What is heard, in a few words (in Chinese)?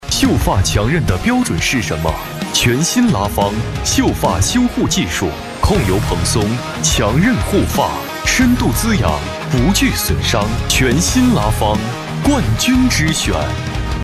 男 央视广告; 拉芳